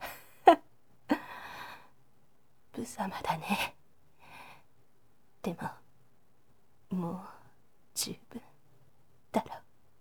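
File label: "buzamadane", woman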